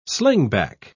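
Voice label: "slingback", British English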